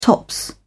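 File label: "top", British English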